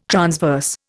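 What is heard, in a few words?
"transverse", Indian English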